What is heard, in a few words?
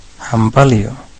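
congratulation, Scottish Gaelic; gcompris-data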